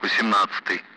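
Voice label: Speech, Russian, 8th; Allied Flight; DCS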